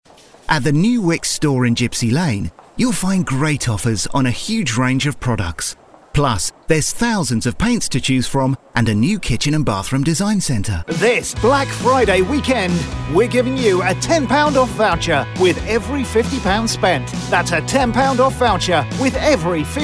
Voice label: commercial